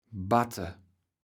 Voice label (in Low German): "batte", kaevels platt